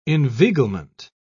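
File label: "inveiglement", British English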